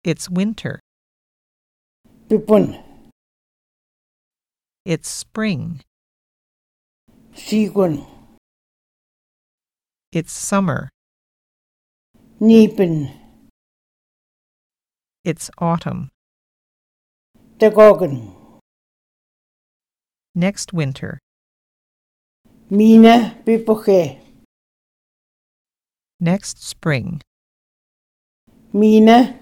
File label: Moose Cree seasons; Linguistic Atlas